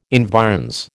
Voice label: "environs", American

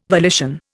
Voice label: "volition", Indian

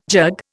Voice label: "jug", Indian

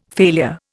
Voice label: "failure", Indian

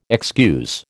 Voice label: "excuse", American

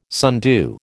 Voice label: "sundew", American

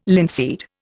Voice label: "linseed", Indian

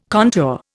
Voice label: "contour", Indian

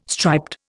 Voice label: "striped", Indian

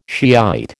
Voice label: "Shiite", American

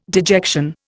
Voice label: "dejection", Indian

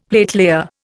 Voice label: "platelayer", Indian; Dictionary

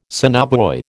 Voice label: "sonobuoy", American

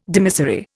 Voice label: "dimissory", Indian

